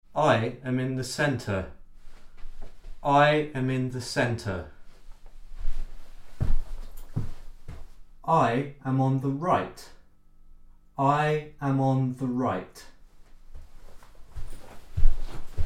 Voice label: Voice in a Room - XY